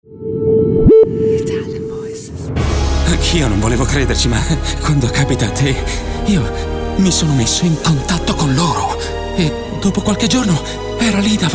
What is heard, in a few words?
ITALIAN VOICES Ironic Acting, male voices